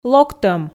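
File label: "локтӧм", Komi, female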